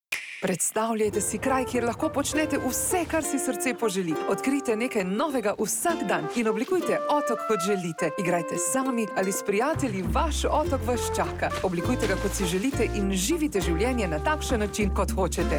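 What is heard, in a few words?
slovenian female commercial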